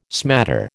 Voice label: "smatter", American